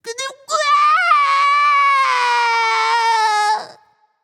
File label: fear; smite; ratatoskr